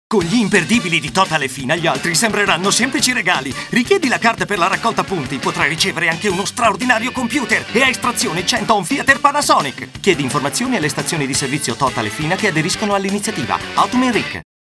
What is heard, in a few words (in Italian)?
spot